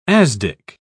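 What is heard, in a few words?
"asdic", British English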